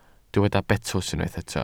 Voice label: "betws", Welsh

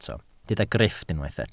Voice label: "grifft", Welsh

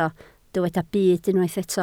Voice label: "byd", Welsh

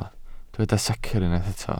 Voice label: "secr" Welsh

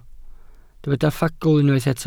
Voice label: "ffagl" Welsh

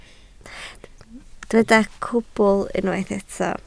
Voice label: "cwbl", Welsh

